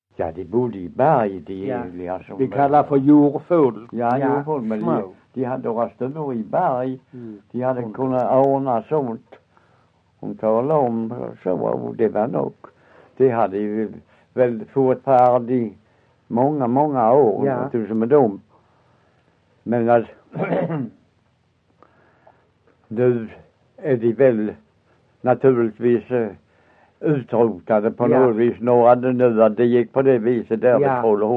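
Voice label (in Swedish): Össjö Skåne, Svenska sagor, dialekter; Folkminnen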